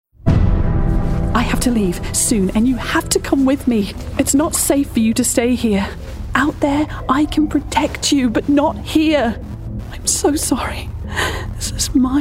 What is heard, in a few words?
english new zealand video games